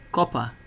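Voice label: "corper", Nigerian Pidgin, male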